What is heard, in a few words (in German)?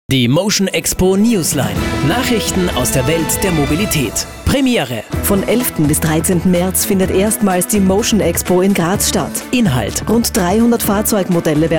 Spot; MotionExpo